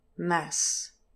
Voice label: "mass", English pronunciation, trap vowel